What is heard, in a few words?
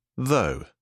"though", British English